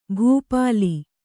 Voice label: "ಭೂಪಾಲ", Kannada

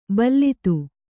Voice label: "ಭಲ್ಲಾತ", kannada

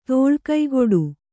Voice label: "ತೋಳ್ಕೈಗೊಡು", Kannada

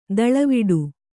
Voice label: "ದೂಳುವಡೆ", Kannada

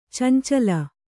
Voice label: "ಚೆಂಚಲಿ", kannada

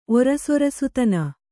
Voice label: "ಒರಸೊರಸುತನ", Kannada

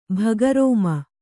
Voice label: "ಭಗರೋಮ", Kannada

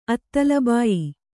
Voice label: "ಅತ್ತಲಬಾಯಿ", Kannada

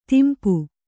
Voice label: "ದುಂಪ", kannada

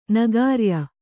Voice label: "ನಗಾರ್ಯ", Kannada

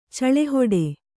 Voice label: "ಚಳೆಹೊಡೆ", Kannada

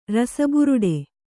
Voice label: "ರಸಬುರುಡೆ", Kannada; rasa buruḍe; noun; a silver hollow glass.

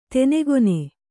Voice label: "ತೆನೆಗೊನೆ", Kannada

